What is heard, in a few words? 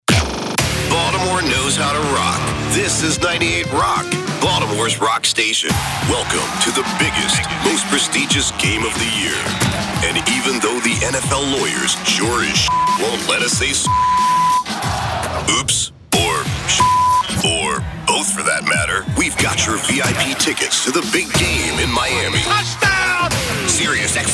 Radio Imaging